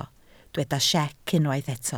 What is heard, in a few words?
"siec", Welsh